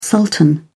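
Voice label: "sultan", British English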